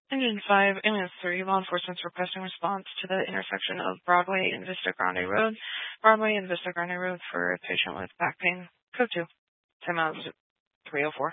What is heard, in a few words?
Fire Department Dispatch; Grand Junction